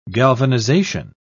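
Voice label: "galvanization", British English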